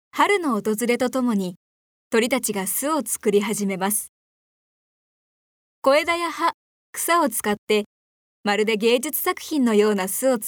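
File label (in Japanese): ナレ, ボイスサンプル; 女性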